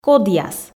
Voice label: "кодъяс", Komi